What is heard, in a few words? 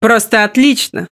Speech Quick Commands Good Game